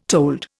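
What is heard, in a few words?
"tolerable", Indian; Dictionary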